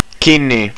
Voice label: closed, estonian

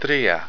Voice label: "three", Greek

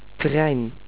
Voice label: "train", Dutch

